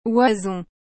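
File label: "oison", French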